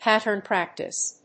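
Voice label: "Pattern practice", English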